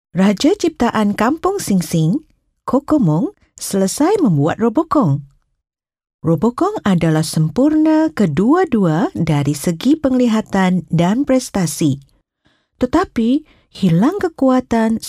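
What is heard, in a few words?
Malay, female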